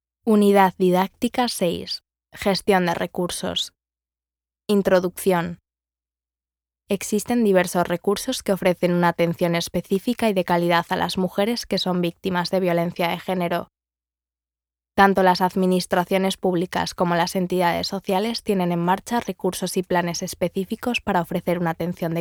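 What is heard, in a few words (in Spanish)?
e-learning, Spain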